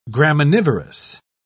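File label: "graminivorous", English, British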